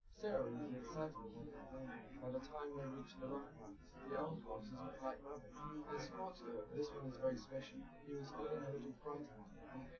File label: male live rec; SiSEC10; underdetermined